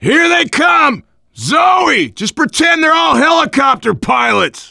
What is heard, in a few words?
incoming, voice, biker; Left 4 Dead 2; left4dead2 dlc3; survivor